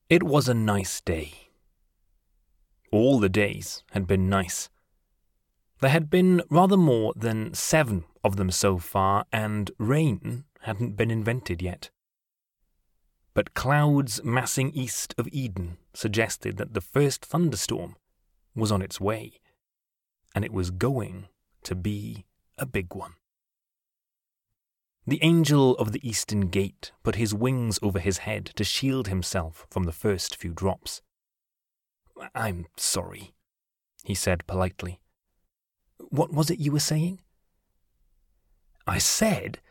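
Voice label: British English, Narration; GoLocalise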